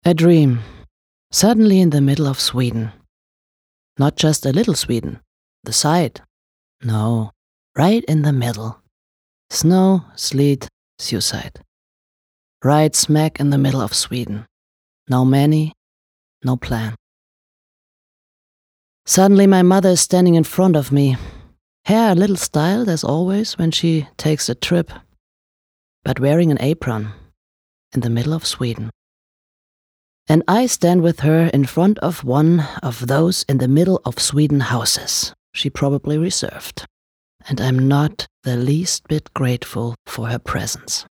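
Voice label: British English, Sanft; Schweden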